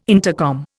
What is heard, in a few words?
"intercom", Indian